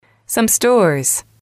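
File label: "some stores", English, female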